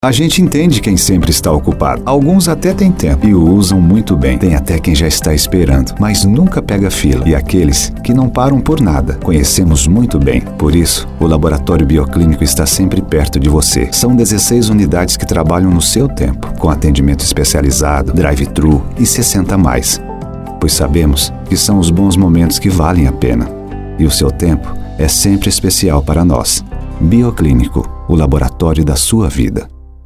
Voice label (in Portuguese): spot